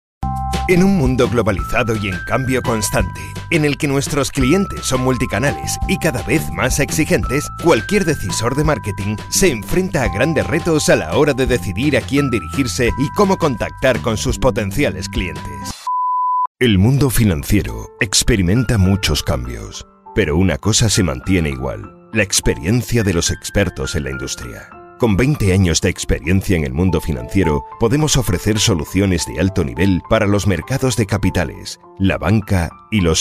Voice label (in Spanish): narración